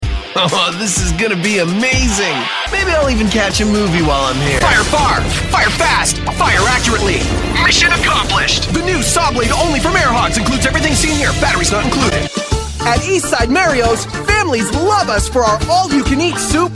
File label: Commercial